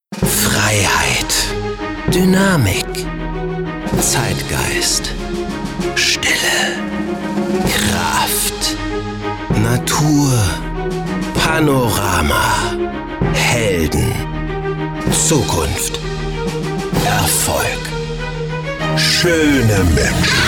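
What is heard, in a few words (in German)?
Autohaus, Sprecher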